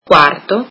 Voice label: italian fourth